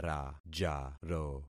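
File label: "rajaro", concatenated syllables; Affixing experiment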